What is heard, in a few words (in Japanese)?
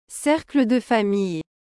"cercle de famille", フランス語